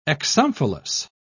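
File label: "exomphalos", British English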